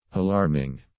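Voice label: "alarming", English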